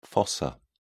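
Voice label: "fossa", English, British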